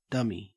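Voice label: "dummy", Australian English; pronunciation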